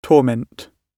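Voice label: "torment", British English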